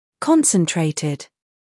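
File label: "scandal", American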